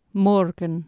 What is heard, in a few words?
"morgen", Anglo Saxon